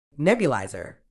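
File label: "nebuliser", British English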